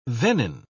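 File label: "venin", British English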